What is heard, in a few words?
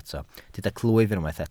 "clwyf", Welsh